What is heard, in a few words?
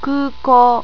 "kuukou", japanese